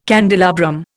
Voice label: "candelabrum", Indian

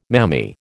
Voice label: "mammy", American

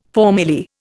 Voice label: "formerly", Indian

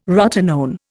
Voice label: "rotenone", Indian

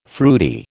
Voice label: "fruity", American

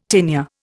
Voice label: "tinea", Indian

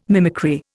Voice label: "mimicry", Indian

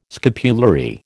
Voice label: "scapulary", American

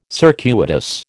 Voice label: "circuitous", American

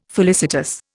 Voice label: "felicitous", Indian

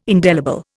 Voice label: "indelible", Indian

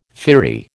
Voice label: "firry", American

